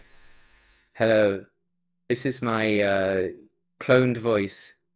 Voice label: cloned voice

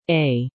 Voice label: A female

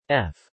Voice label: "F", male

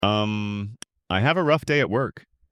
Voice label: voice in conversation; omni audio demo

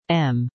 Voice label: "M", female

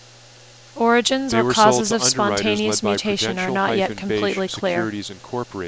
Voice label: female male speech

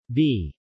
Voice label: "B", male

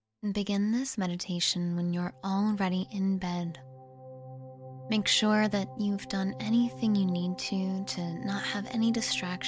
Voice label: meditation; intone

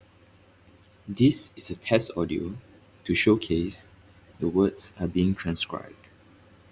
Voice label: english; GenAI StoryTeller

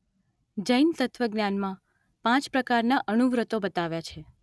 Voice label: Gujarati; Meta mms ASR

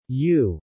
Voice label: "U", male

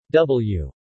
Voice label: "W", male

